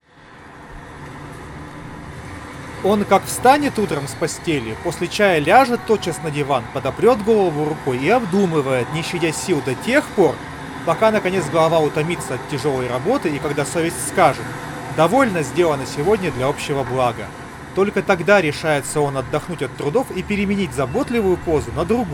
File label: Recorder noise; Запись на профессиональный рекордер (в шумной обстановке); на записи присутствуют только высокочастотные шумы — гудки машин, различные скрипы; anker soundcore p40i; Шумоподавление работает, причем крайне неплохо